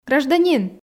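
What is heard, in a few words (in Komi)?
"гражданин", Komi, female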